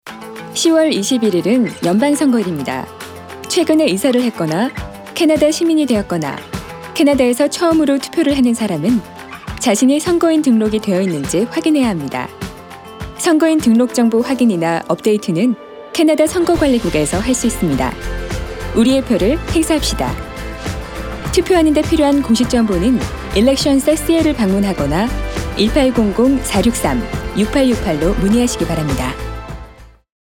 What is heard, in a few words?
Korean, radio advert